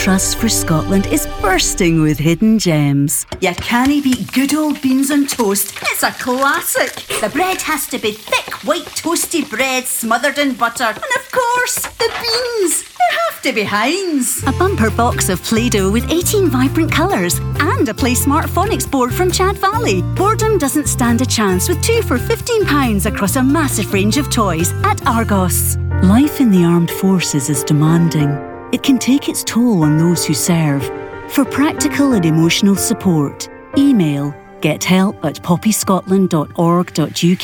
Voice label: Scottish English F Commercial; accent of scotland

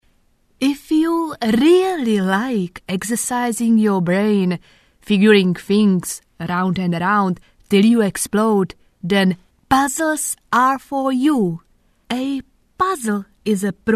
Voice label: Czech English F; accent of czech republic

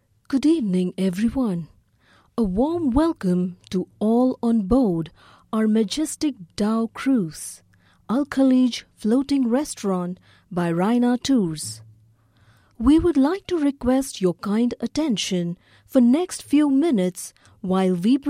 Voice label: English Indian F Documentary, accent of india

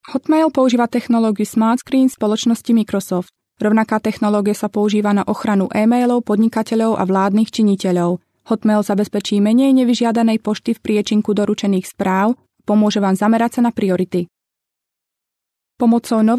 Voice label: Slovak training

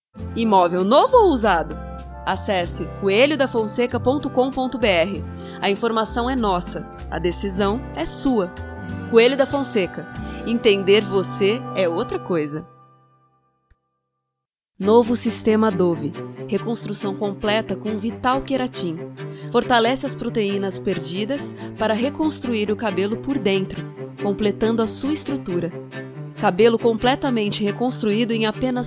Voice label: Portuguese BR F Showreel; voice over

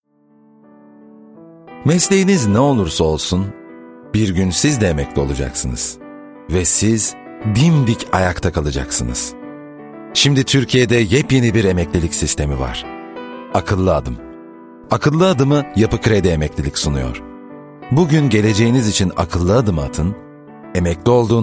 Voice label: Turkish M Corporate; voice-over

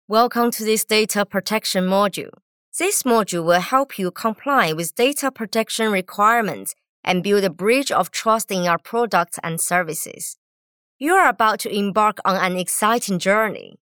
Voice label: Chinese EN F e Learning; accent of china

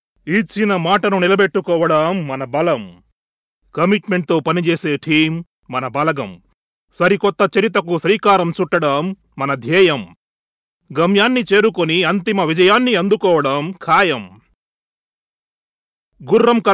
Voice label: Telugu M

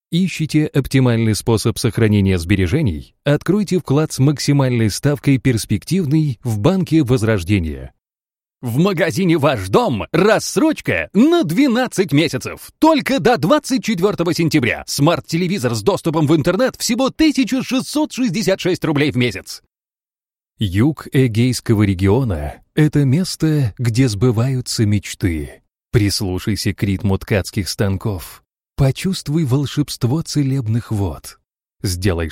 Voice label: Russian M Commercial reel

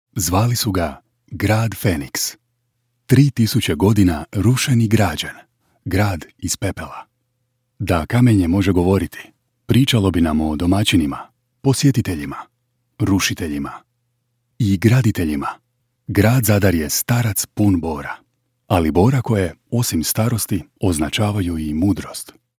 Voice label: Croatian M Narration; voice over

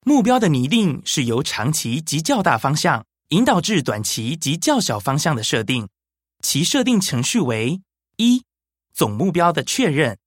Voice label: Taiwanese Mandarin M

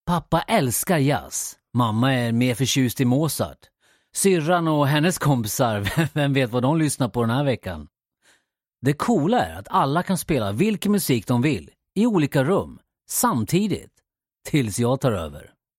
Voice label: Swedish Commercial

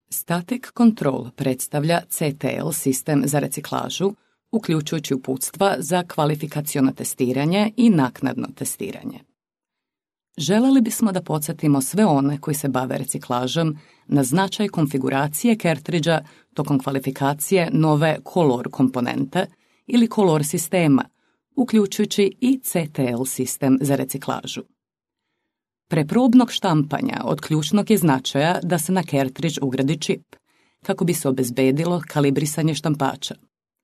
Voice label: Serbian corporate; voice over

